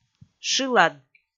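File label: "шылад", Komi, female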